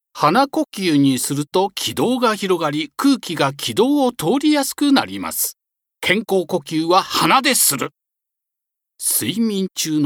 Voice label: 健康推進ナレ

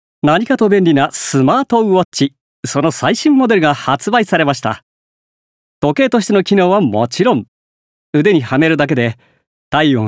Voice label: 商品CM

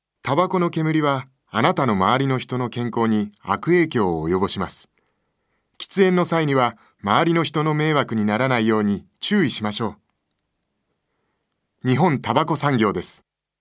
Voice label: 注意喚起

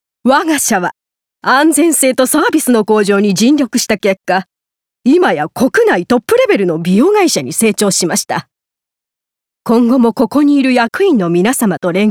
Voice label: 企業方針を発表する社長

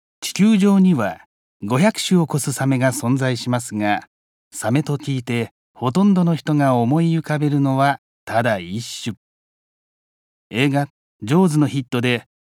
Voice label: 教養番組ナレ

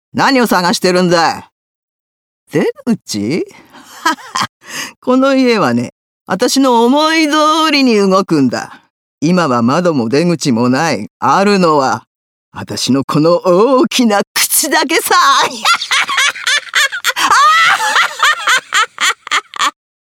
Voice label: 男勝り、高笑い

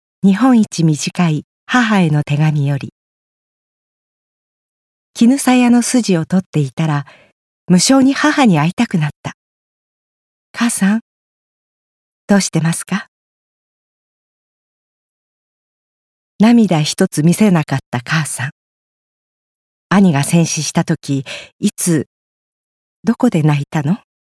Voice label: 朗読

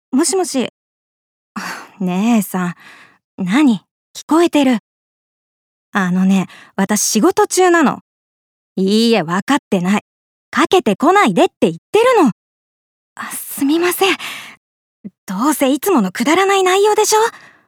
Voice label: 苛立ち

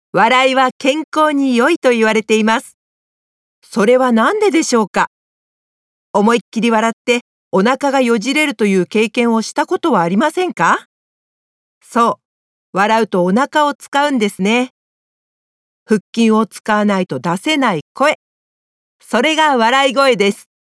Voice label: 解説番組ナレ

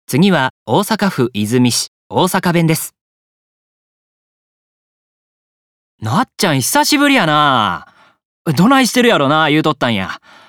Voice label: 大阪弁; 方言

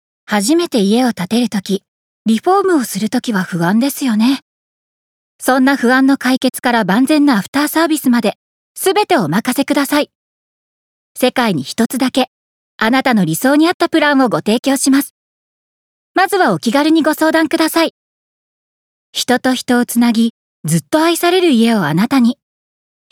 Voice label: 住宅CM

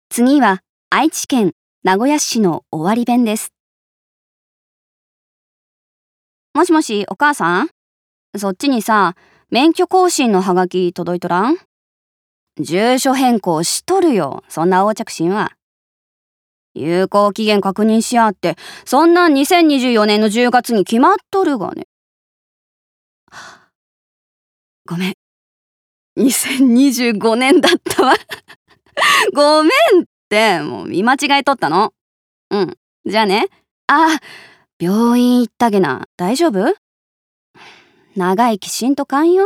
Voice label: 尾張弁

